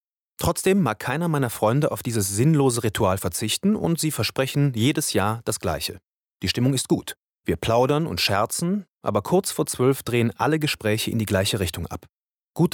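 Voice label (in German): Silvester Erzählung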